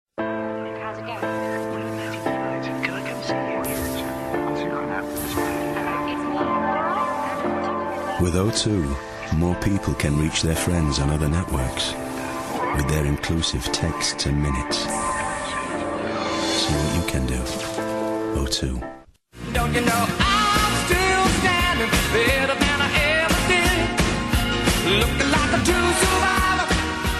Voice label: Commercial